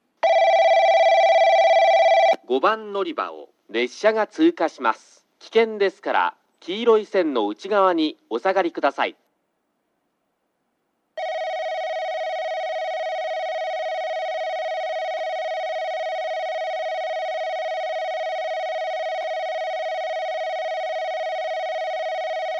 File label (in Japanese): 5番のりば通過放送